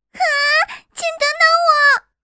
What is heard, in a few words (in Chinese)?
萝莉 活力